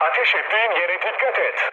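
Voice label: Turkish player friendly fire, mood low, speech; War Thunder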